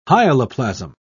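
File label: "hyaloplasm", British English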